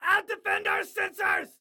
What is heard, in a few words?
Self Defend Sensors, Excited Guy